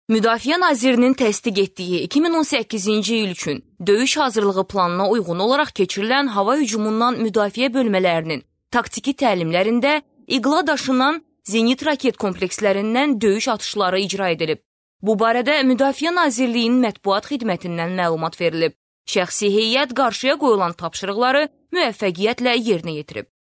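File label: Azerbaijani female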